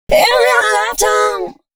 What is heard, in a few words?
female, Dance Ultra Voice hooklines; Mutekki Media; Dance Ultra Voice VOL-1